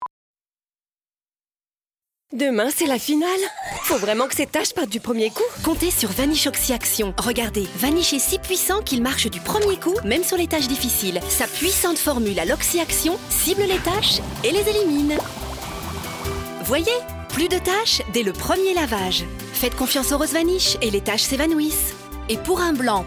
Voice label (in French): Spot Radio Méangère excédée; vanish